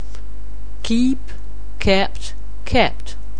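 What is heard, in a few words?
"keep", English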